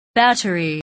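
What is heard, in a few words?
"battery", English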